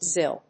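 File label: "Zill", English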